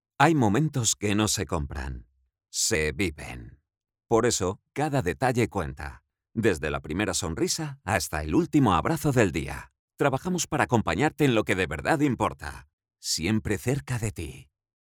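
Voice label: Spanish, male, commercial, voz calida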